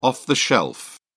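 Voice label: "off-the-shelf", British English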